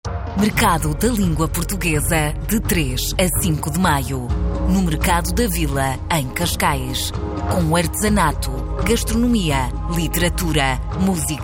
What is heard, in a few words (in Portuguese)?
promo; Spot rádio promocional; Mercado da Língua Portuguesa em Cascais